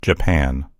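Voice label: "japan", English; gcompris; geography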